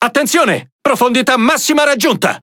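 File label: Speech Alarms Sub Max Depth, Italian; World Of Warships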